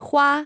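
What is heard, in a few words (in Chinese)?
"花" Chinese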